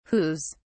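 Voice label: "whos", British English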